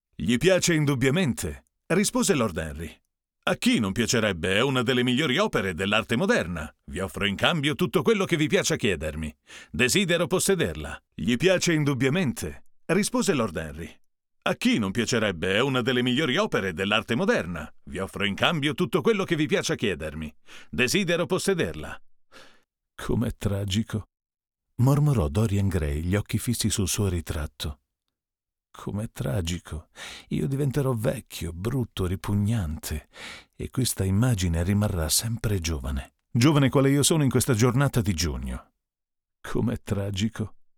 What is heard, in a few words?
AKG RK12 and U87Ai Blind Test